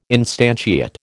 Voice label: "instantiate", American